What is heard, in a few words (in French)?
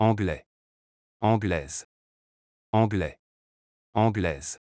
"Anglais Anglaise", French